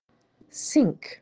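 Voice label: "sink", English; useful words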